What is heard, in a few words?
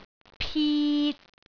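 "pit3", Cantonese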